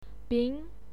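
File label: "bin", Mandarin Chinese, second tone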